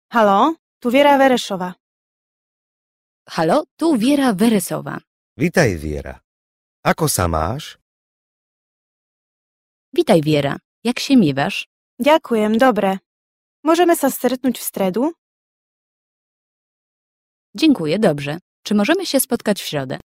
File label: dialog